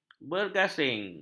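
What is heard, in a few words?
"bergasing", Malay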